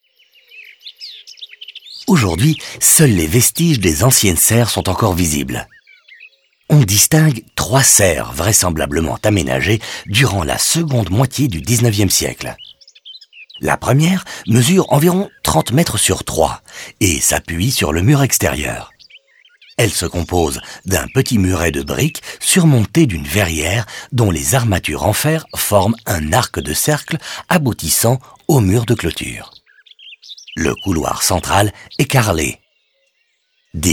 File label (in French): audioguide fr enfant; modave